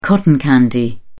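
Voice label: "cotton candy", British English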